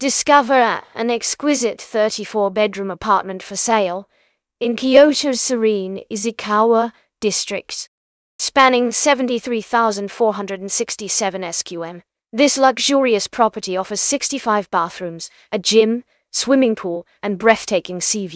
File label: narration audio